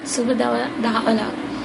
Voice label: good afternoon, sinhala